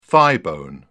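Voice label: "thighbone", British English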